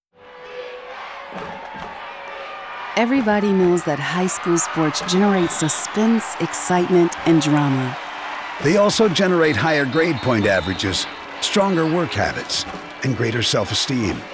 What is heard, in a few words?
audio spot